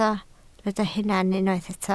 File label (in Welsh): "hunan" Welsh